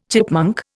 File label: "chipmunk", Indian